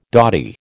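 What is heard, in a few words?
"dotty", American English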